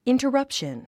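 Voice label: "interruption", English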